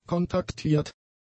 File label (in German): "kontaktiert", German; adjectives